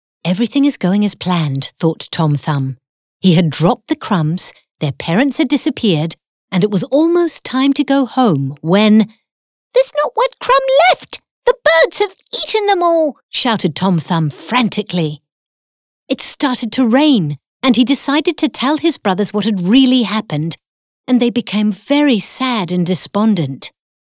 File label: Audio Book; Tom Thumb